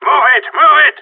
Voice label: Radio let's go